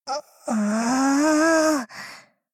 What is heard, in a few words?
angry